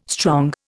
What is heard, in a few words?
"strong", Indian